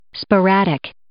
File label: "sporadic", English